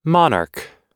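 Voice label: "monarch", American English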